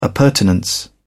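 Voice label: "appurtenance", British English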